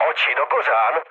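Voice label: player friendly fire, Czech, mood med; speech; War Thunder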